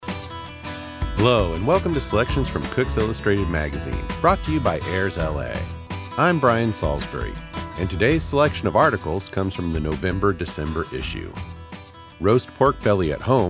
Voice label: Podcast sample